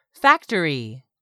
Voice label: "factory", English; 3000 words